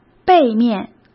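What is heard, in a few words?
"背面", Mandarin Chinese; chinese-flashcards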